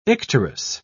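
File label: "icterus", British English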